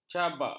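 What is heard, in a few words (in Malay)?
"cabak", Malay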